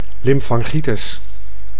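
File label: "lymphangitis", Dutch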